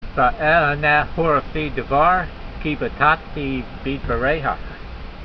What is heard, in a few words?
voice, hebrew language; Psalm 119:42; ve'eh'eh'neyh horefee davar, kee-va'tah'tee beed'va'reyha